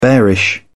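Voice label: "bearish", English, British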